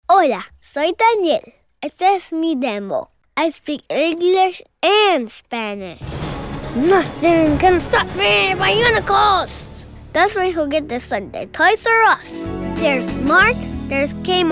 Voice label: kids commercial; Elementary aged. Excited and curious kid who speaks Spanish and English!

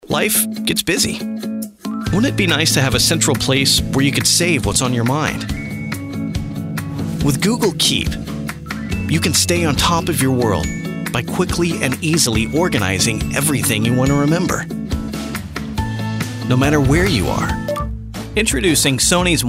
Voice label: men narration; Young. Real. Conversational. Guy next door. Down to earth. Non-announcery. Not 'selly'.

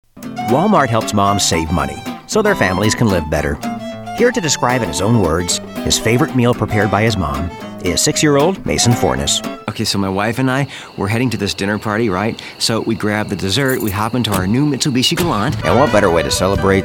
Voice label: men commercial; Emmy-nominated voice of Porky Pig. In practically every single Pixar movie ever.

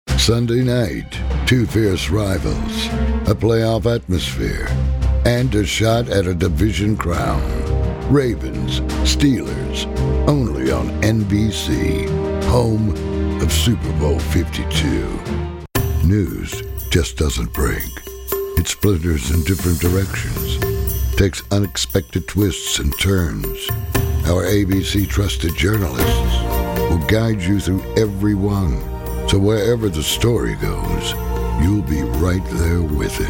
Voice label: men promos; Deep, warm and hard. Mature, husky, gritty, and distinctive.

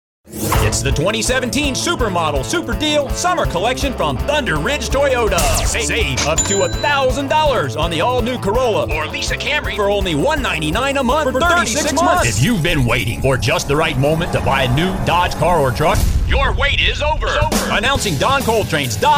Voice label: men other dealer; Just a typical everyday guy next door. Husband. Dad. Friendly neighbor.; Direct Response; Training

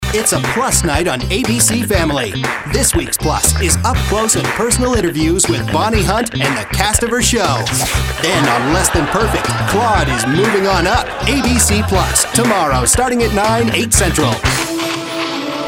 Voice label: men promos; Genuine, cool & approachable with a wry reserve.

